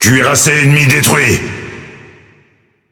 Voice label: Speech Destroy Enemy Battleship, FR; World Of Warships

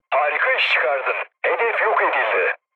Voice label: bomb success, mood low, speech tur; War Thunder

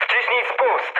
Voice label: attack position mood low, speech pol; War Thunder

